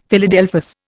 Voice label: "Philadelphus", Indian; Dictionary